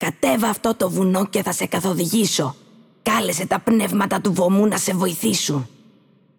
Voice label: el GR Game Intro, VO; Kennen; bloom minigame